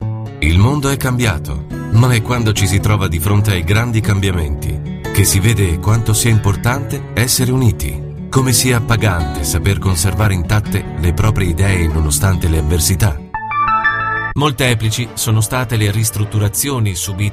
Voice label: Aziendale

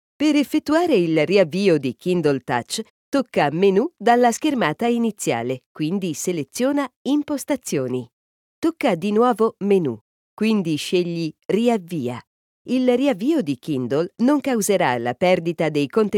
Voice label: ITA Audioguida; Kindle

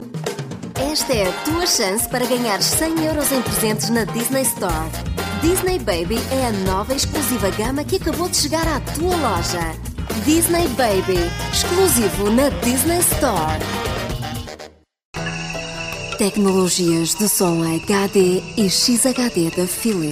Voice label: pubblicita

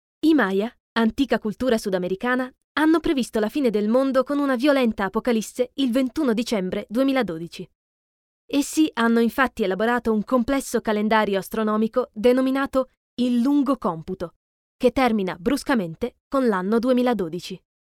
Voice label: ITA Documentario

